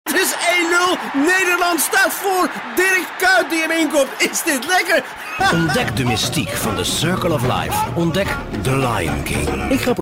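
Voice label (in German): Dutch Dynamisch; Fremdtake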